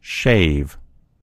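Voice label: "shave", American English; gcompris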